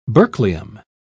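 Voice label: "berkelium", English, British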